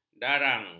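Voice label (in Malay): "darang", Malay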